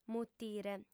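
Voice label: "mutire", female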